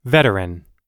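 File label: "veteran", English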